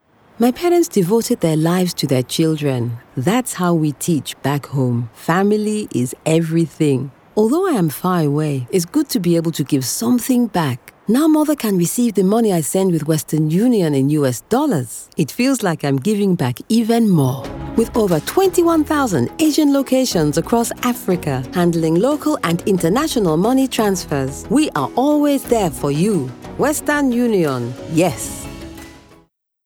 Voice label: Corporate, voices; Western Union